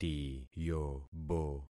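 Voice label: "tiyobo", concatenated syllables; Affixing experiment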